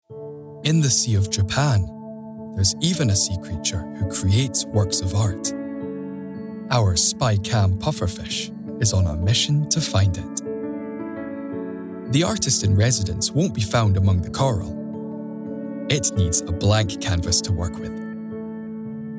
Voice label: DOCUMENTARY